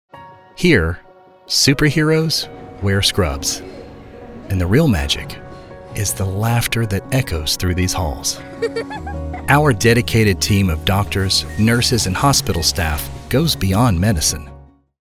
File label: Commercial HOSPITAL